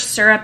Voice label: Syrup female